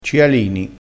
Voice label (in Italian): "cialini", crespinese